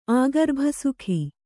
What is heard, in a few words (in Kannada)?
"ಆಗರ್ಭಸುಖಿ", kannada